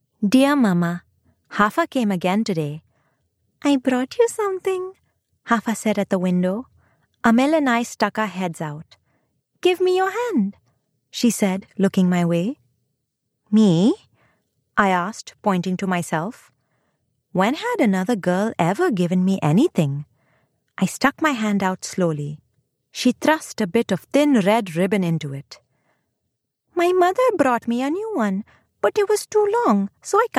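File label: Mid Grade Historical Fiction Indian Accent female female male dialogue, audiobooks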